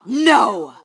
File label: Team No, Female